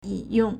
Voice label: "ying3 yong4", Chinese